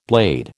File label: "blade", American